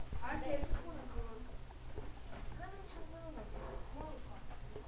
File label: English